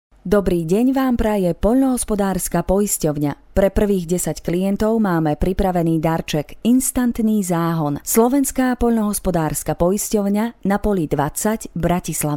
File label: slovak female voiceover locutora eslovaca